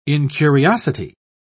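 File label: "incuriosity", British English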